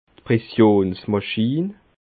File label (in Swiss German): "pressionsmaschin", alsace